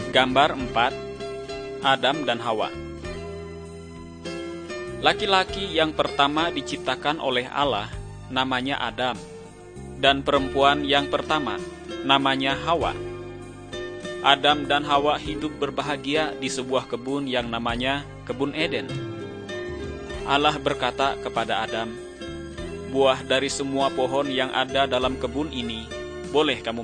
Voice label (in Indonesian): kabar baik good news bahasa indonesia; malay